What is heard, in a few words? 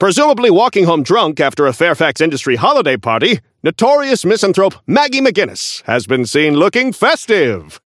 Newscaster; seasonal forge unlock